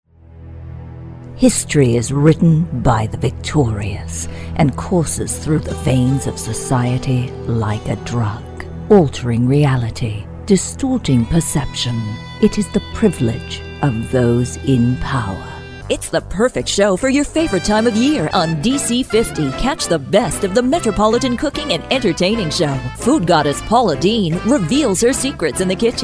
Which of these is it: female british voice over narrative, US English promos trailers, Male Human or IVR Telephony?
US English promos trailers